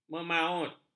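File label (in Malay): "memaut", Malay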